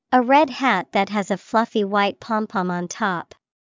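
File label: santas hat, English vocabulary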